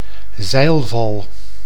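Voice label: "zeilval", Dutch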